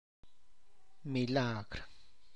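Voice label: miracle, Tlacochahuaya Zapotec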